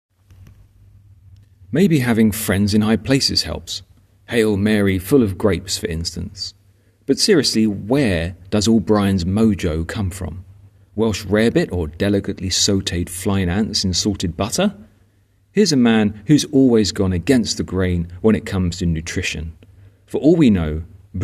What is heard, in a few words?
voiceover